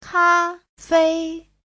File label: "ka1 fei1", chinese